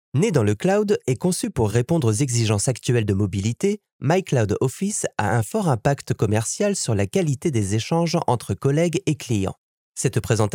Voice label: french male e-learning, Youthful Approachable French Voice